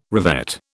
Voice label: "revet", British

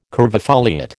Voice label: "curvifoliate", American

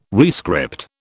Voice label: "rescript", British

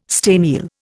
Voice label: "staniel", Indian

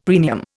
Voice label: "premium", Indian; Dictionary

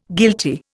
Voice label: "guilty", Indian

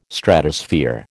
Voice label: "Stratosphere", American

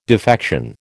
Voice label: "defection", American

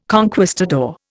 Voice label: "conquistador", Indian

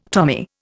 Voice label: "Tommy", Indian

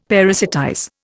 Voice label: "parasitize", Indian; Dictionary

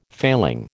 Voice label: "failing", American

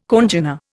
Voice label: "congener", Indian

